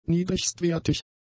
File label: "niedrigstwertig", German; adjectives